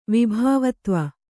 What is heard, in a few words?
"ವಿಭಾವತ್ವ", Kannada